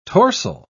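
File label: "torsel", English, British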